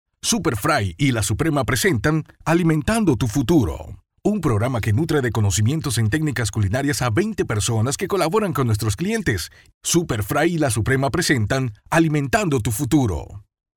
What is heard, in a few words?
locutor panama panamanian voiceover; locutor de Panamá